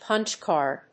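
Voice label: "punch card", English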